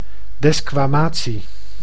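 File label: "desquamatie", Dutch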